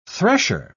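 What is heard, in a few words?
"thresher", British English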